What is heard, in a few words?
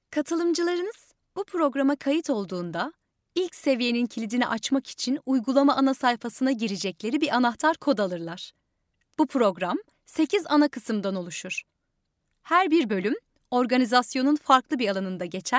Turkish